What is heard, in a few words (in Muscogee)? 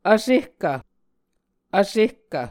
"vsehkv", muscogee